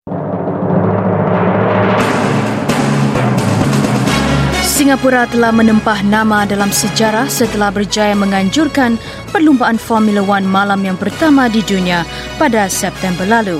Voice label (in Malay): Malay, female